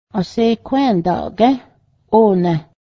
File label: are you done eating now, Cayuga; asekwędáˀ gę́h ónęh